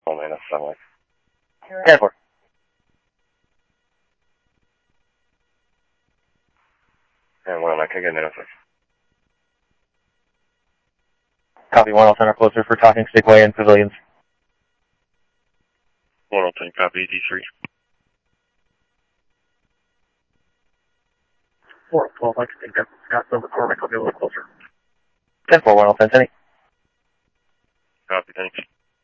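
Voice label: police department, scanner audio trunk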